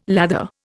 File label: "lather", Indian